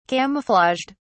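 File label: "camouflaged", British English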